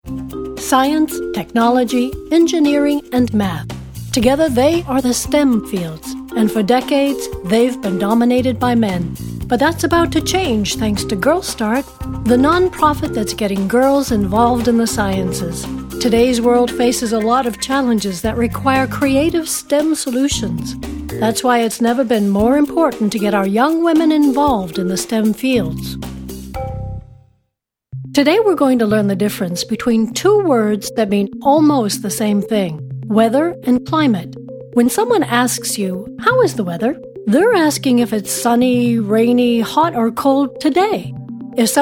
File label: Narrative